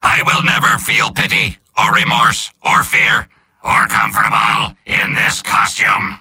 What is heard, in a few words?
soldier robot; mvm